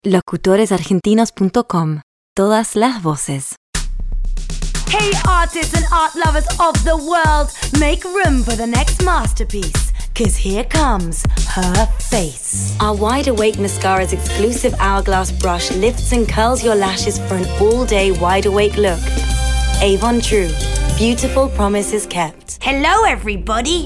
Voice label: Ingles